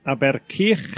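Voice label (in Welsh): "Aber-cuch", Welsh; Ynganu Aber-cuch; Anheddiad; Enwau Lleoedd Safonol Cymru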